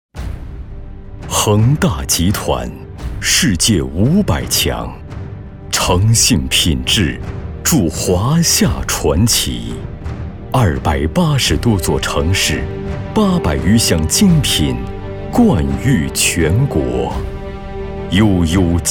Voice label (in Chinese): 房地产类广告; 恒大; 建筑房产